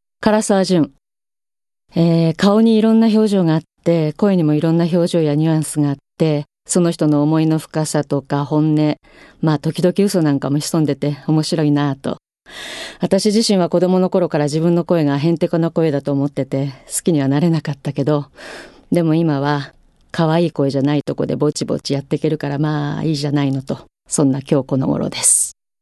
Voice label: female voice freetalk

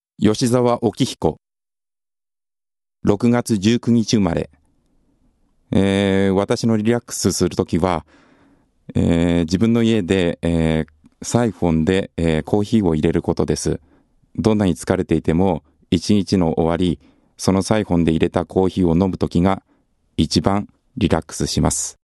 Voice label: free talk, male voice

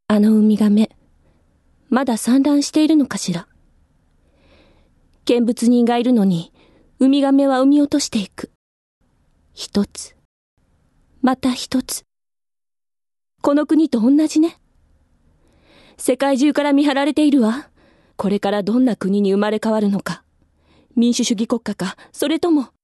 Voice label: female voice

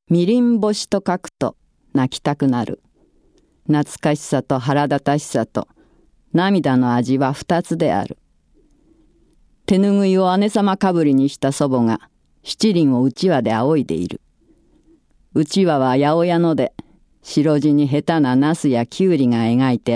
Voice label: reading, female voice

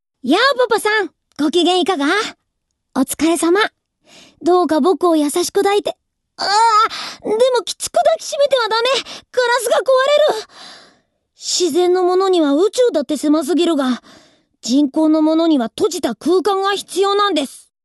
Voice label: boy, female voice; セリフ

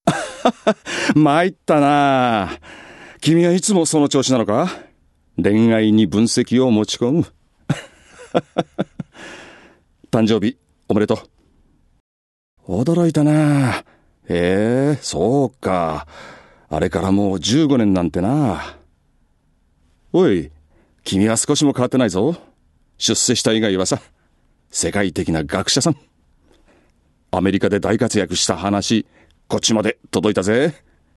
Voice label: male voice; セリフ

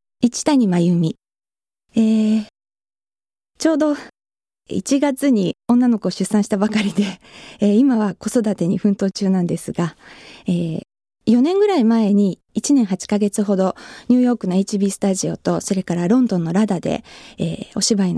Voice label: free talk, female voice

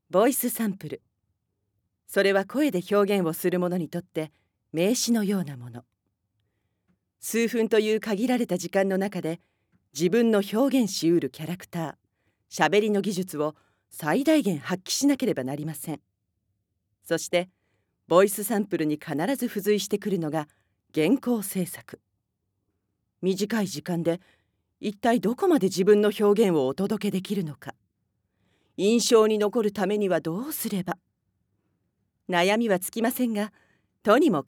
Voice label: female voice

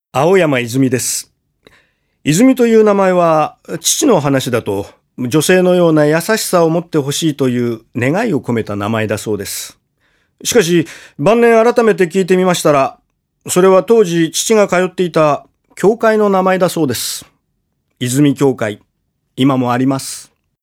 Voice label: freetalk, male voice

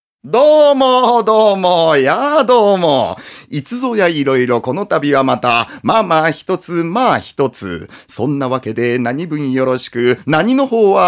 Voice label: male voice

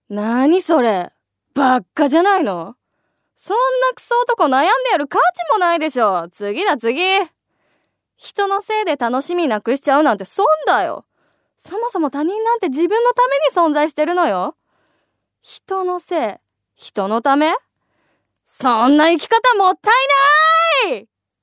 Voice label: female voice

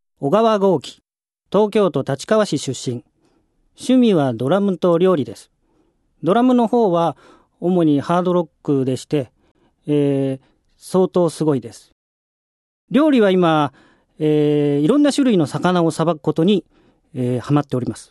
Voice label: freetalk, male voice

